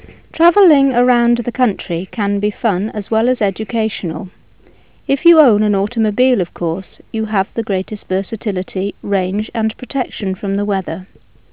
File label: woman